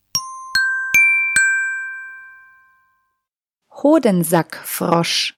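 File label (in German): "Hodensackfrosch", German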